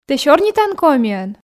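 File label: "тэ сёрнитан комиӧн", Komi, female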